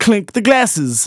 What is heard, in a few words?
Phrase Male Rap; Vengeance Samples